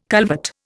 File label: "culvert", Indian